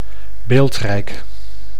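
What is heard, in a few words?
"beeldrijk", Dutch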